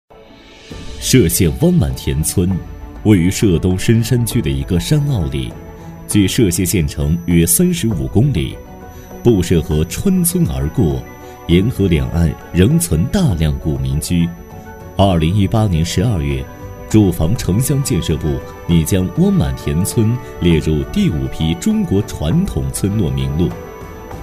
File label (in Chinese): 男 纪录片配音 随和讲解; 中国传统村落; 男D5-厚重有力